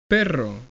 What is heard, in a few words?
DOG, spanish european